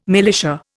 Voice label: "militia", Indian